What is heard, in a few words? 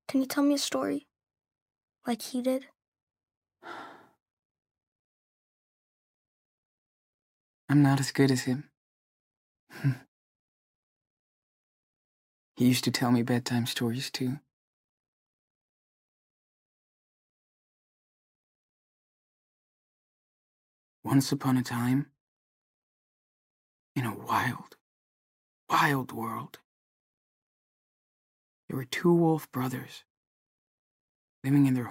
bus voice; Life is Strange 2; gamesoundopedia